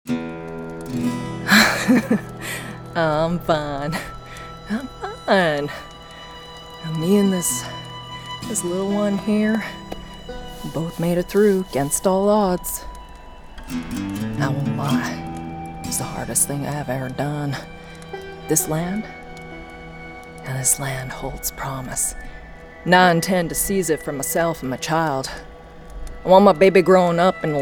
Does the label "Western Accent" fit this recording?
Yes